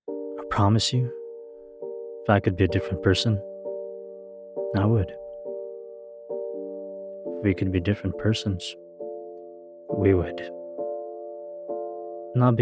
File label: english podcasts